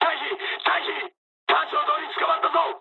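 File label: searchlite mood high, speech japanese; War Thunder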